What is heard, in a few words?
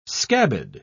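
"scabbed", English, British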